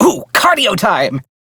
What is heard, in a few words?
Crew Follow, Male; Warframe; Railjack; Perrin Sequence